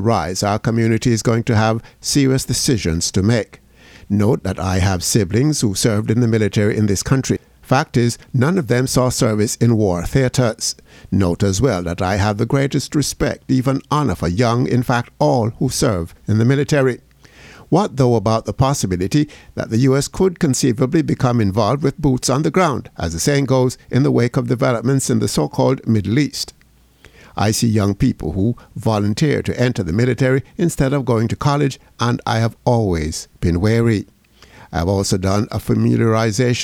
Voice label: COMMENTARY